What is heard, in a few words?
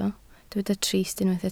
"trist", Welsh